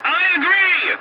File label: voice message yes, english; War Thunder